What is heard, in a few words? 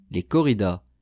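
"les corridas", French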